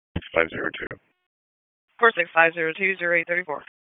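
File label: Police North District Dispatch, p25; Raleigh; wake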